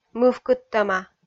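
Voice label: "мывкыдтӧма", Komi, female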